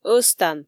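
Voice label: "ӧзтан", Komi, female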